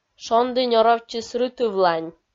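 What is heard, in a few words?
"шонді нёровтчис рытывлань", Komi, female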